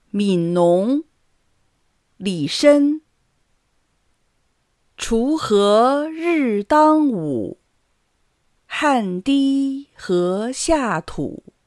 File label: Speach Speach Contest normal